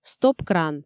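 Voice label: "стоп-кран", Komi, female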